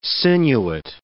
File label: "sinuate", words